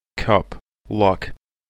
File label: "cup luck", English